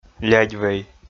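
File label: "лядьвей", Komi, male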